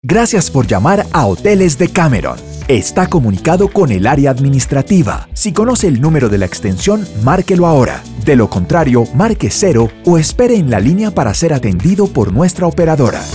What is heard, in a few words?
telephony